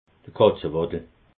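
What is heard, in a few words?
"katzewaddel", Alsatian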